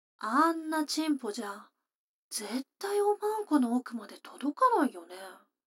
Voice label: 女の子台詞

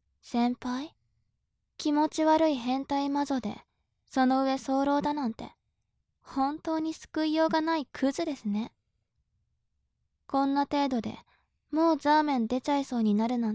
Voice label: 調教中音声

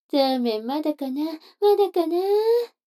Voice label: 女の子 台詞

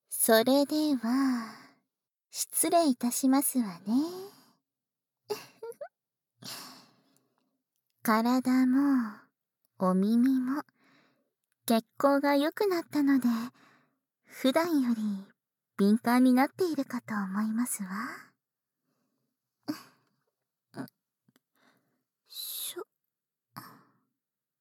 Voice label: 前戯、耳舐め手コキ（バイノーラル 効果音あり大きめ）

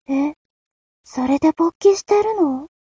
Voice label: mob 女子 台詞

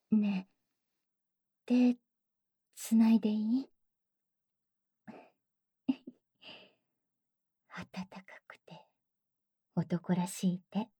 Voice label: ピロートーク, ASMR

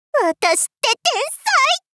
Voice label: 私って天才！ 少女 中音域～高音域

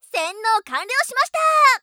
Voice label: 洗脳完了しましたー！, 元気; ハイグレボイス集

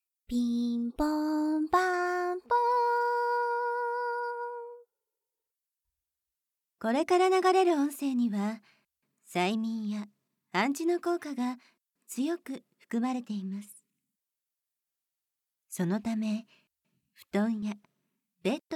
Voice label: アナウンス; 乳首快楽催眠 ver1.10

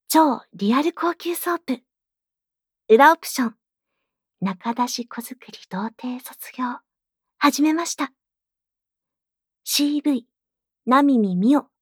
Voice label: タイトルコール, ASMR

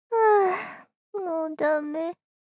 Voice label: あ～もうダメ・・・ 少女×中音域

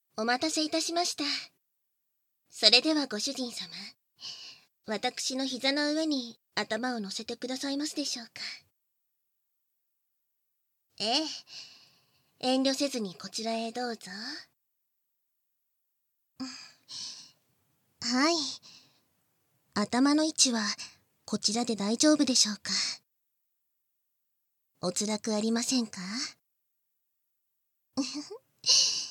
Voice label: 耳かき（囁き重視編集 効果音あり）, ASMR

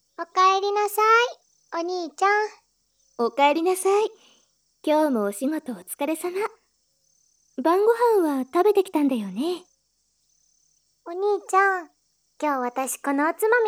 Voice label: 姉妹 の 左右 同時 耳かき & 添い寝

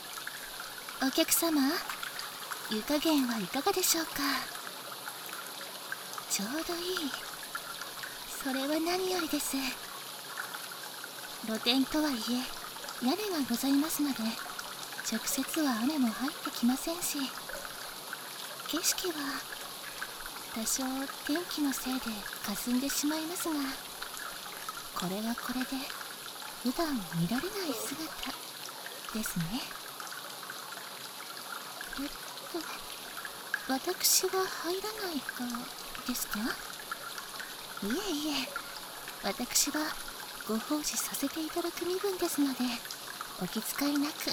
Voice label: 雨と露天風呂(囁き重視編集 効果音あり大きめ), ASMR; 効果音大差分

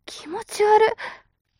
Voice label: mob女子台詞

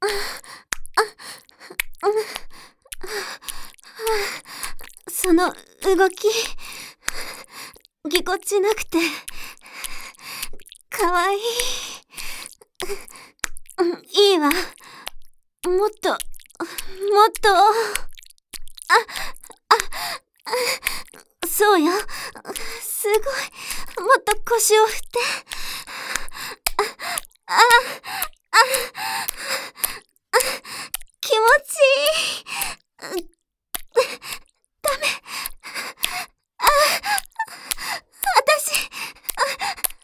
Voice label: もっと腰をふってえ, ASMR

